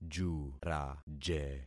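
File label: "juraje", concatenated syllables; Affixing experiment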